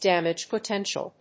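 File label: "Damage potential", English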